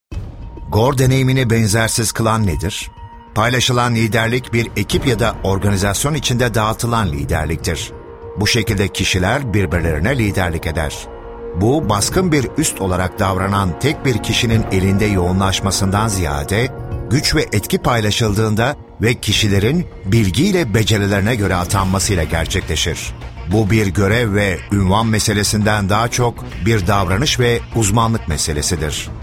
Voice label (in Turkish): belgesel